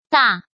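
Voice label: "Dà", Chinese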